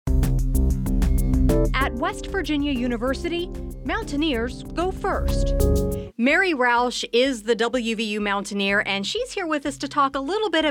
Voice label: radio spot; mountaineer mascot